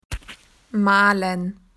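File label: "to paint", Australian English; PictureDictionary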